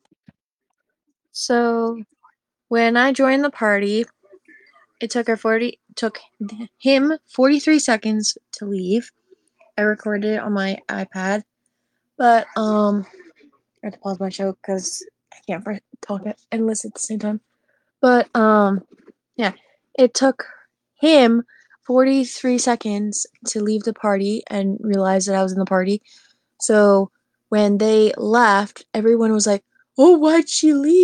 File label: voice message